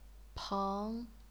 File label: "peng2", chinese pinyin